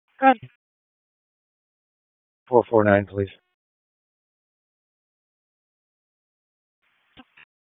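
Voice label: Police District Dispatch, p25; Raleigh; Southwest District; wake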